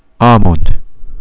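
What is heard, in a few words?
"almond", Japanese, male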